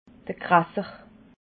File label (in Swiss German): "kresse", alsace